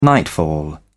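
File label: "nightfall", English, British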